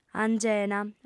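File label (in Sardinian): "angena", lingua sarda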